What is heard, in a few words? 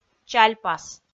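"чальпас", female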